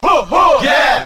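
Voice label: "huh huh yeah", vocal sample; ooh ahh; heh huh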